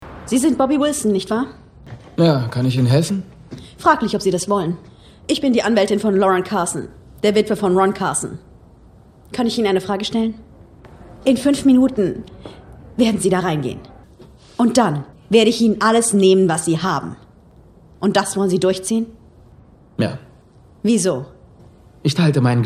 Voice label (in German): Energisch; Fremdtake